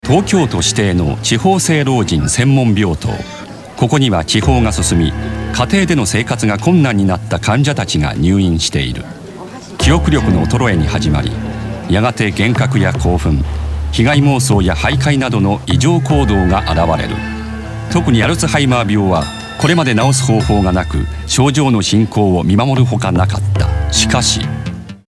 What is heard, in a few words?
Male 35 45 years Medium, japanese japan